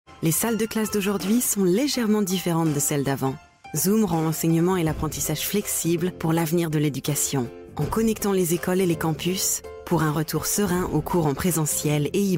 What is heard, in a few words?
French F Showreel